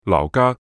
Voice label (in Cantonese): "撈家" Yue